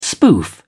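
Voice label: "spoof", British English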